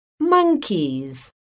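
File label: "MONKEYS", English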